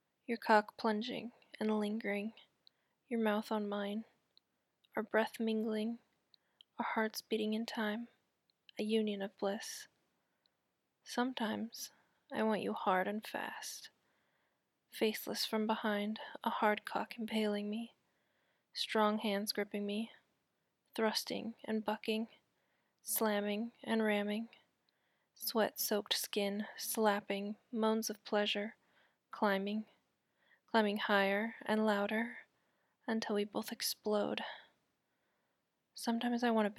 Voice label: erotic freeverse, slow and sensual; F4M; desire; want; all the ways I want you; hard and fast

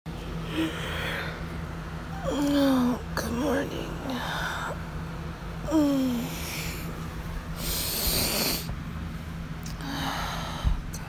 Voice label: waking up, sleepy

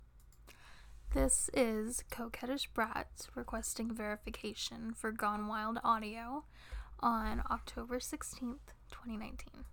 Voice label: F, Verification

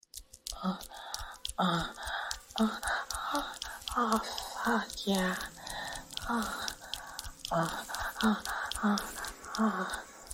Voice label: ASMR Roleplay; Lewd; Futa Forest Witch Milks You pt.2; R18+

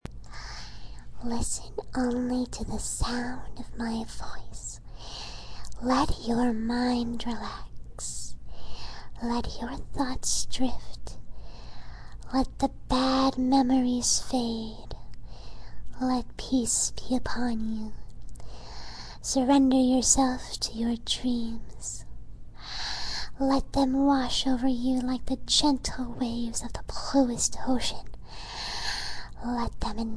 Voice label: ASMR; Fluttershy

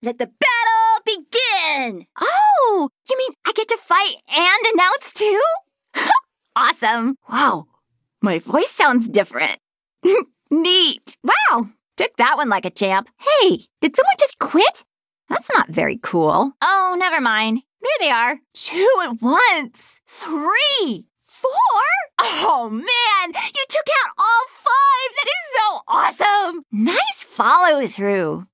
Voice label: announcer sample; Li Li; Heroes of the Storm; warcraft

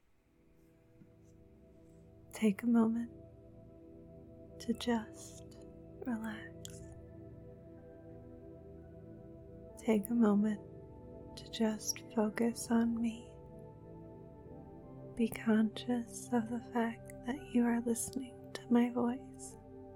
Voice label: Hypnosis, ASMR; Deep trance; Fdom; Snaps; Obedienc; Brain Button Stimulation; F4A

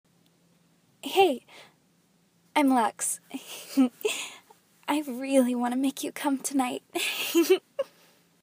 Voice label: Girlish Voice Sample

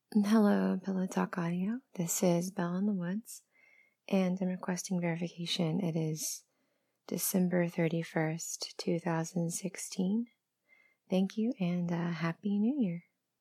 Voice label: Erotic audio

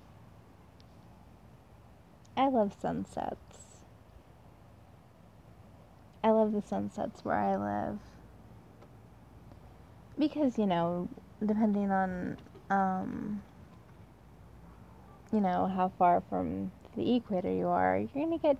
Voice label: Random Ramble

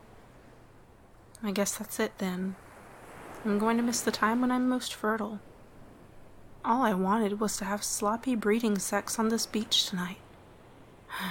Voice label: F4M With ocean sounds; Breeding on a Moonlit Beach

